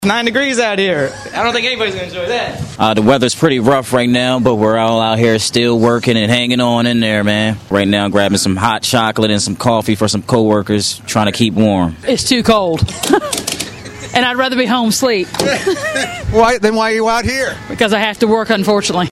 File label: vox pop; snow